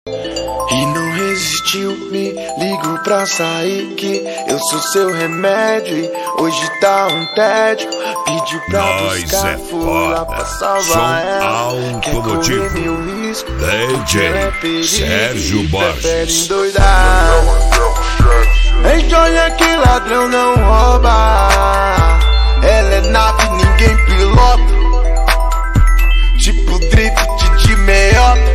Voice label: rap; som automotivo